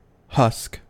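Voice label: "husk", US English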